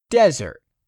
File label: "DESERT", English; 3000 words